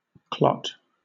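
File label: "clot", British English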